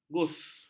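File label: "gus", Malay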